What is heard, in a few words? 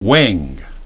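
"wing", English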